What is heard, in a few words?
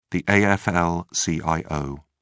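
"afl-cio", British English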